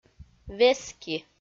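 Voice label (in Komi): "вески", Komi, female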